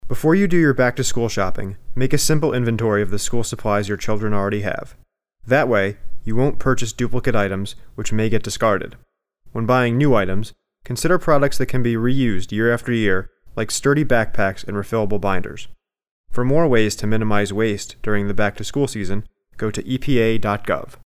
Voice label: psa; back to school shopping